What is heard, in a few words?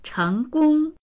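"cheng2gong1", Mandarin Chinese; 成功; 成功する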